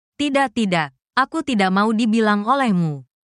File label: indonesian phrases